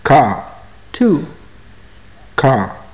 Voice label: numbers, maya